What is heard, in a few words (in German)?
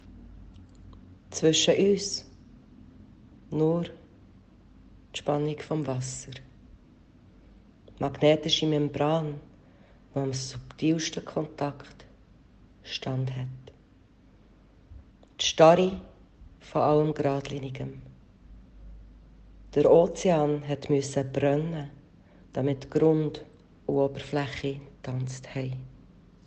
Berndeutsch